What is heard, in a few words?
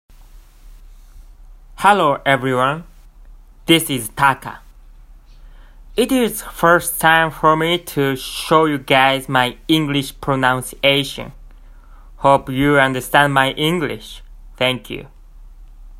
Taka pronunciation